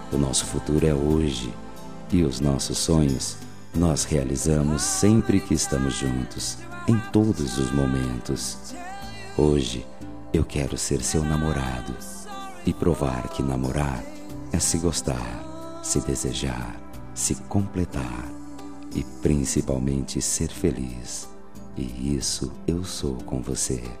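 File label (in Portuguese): Namorados pra Amantes, male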